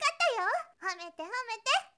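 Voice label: girl, "kattayohometehomete"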